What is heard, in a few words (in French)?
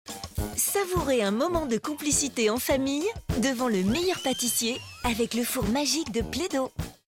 billboard enjouee jeunesse; playdoh